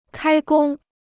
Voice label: "开工", Chinese